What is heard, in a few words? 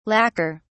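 "lacker", British English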